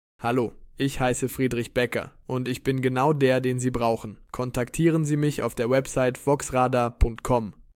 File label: german voiceover russian